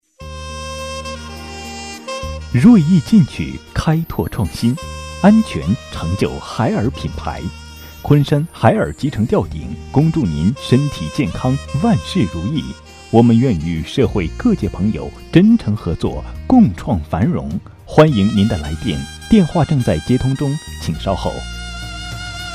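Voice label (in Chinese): 【彩铃】男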